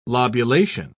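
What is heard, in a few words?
"lobulation", English, British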